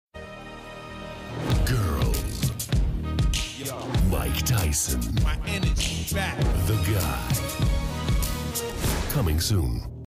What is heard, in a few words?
Male US Commercial